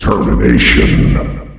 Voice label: "termination", announcer male; Unreal Tournament; ut2004; SKAARJ